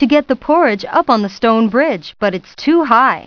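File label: too high story narration; PuttTime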